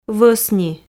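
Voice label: "вӧсни", Komi, female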